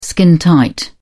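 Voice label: "skintight", British English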